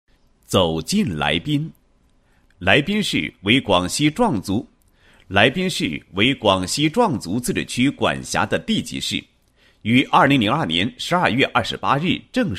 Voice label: 男, 地区宣传; 来宾市宣传

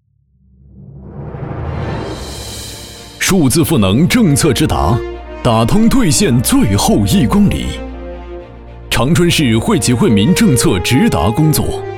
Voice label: 男 宣传 数字赋能党政严肃

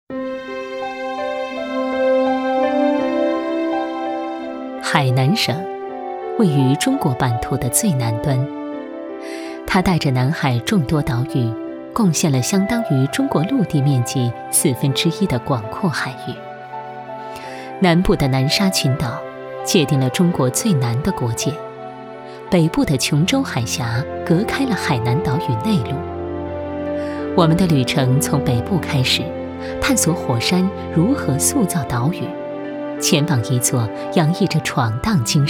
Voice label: 女 纪录片 航拍中国 海南岛

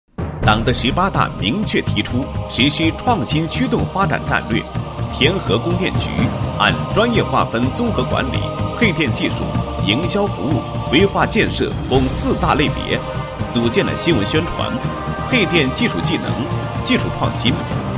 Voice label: 男27, 宣传; 供电局; 青年人才团队宣传; 天河供电局

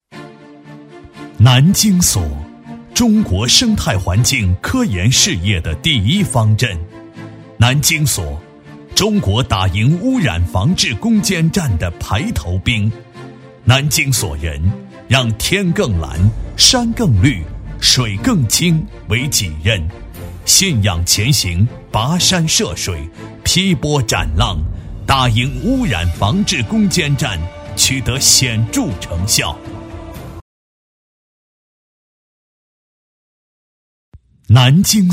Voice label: 男 政府类; 生态环境部南京环境科学研究所

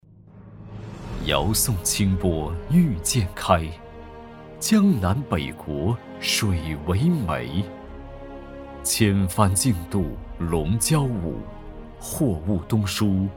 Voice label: 男 大气柔和; 淮河

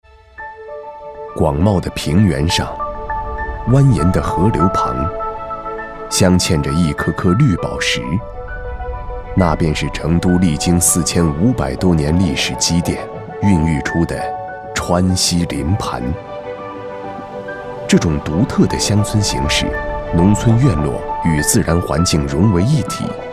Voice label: 男 专题; 川西林盘

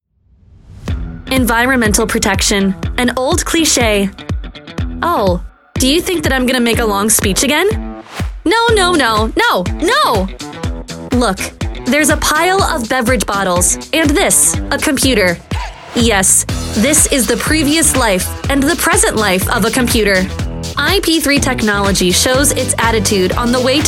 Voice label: 外籍英女, 广告, 年轻活力

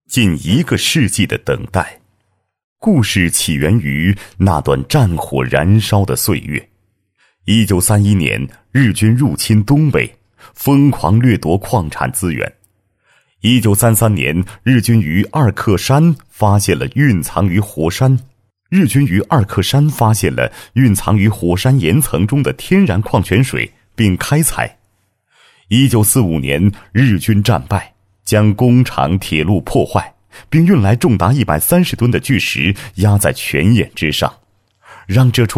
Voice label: 男 矿泉水品牌宣传; 火山鸣泉矿泉水品牌介绍

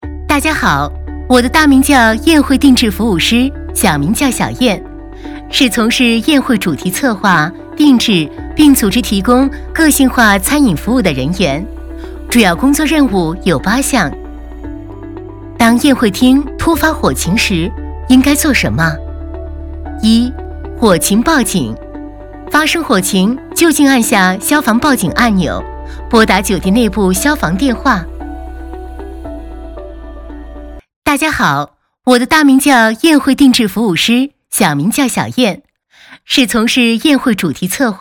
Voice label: 女 自然轻松

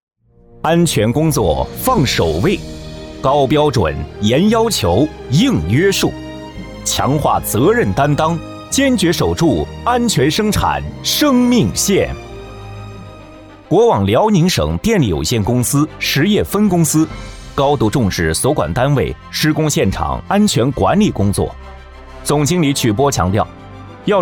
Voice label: 男 专题片 电力; 辽宁电力

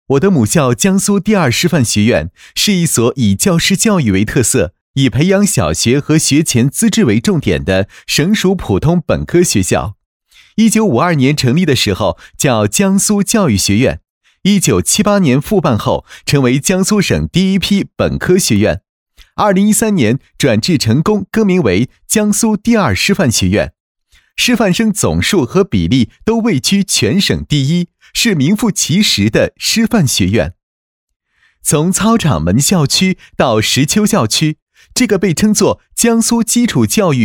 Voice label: 男-学校专题-第一人称; 我的母校

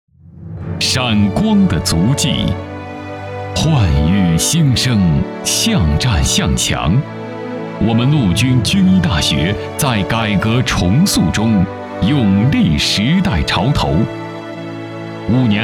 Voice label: 男 颁奖 晚会

